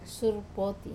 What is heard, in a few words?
"surpoti", comb, wamesa